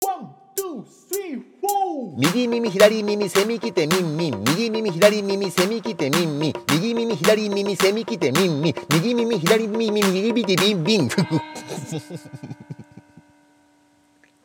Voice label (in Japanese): 早口言葉リズム（中級編）